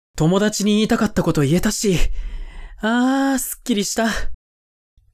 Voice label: man